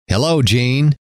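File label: hello, male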